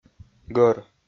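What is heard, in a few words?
"гӧр", Komi, male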